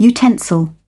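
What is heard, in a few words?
"utensil", British English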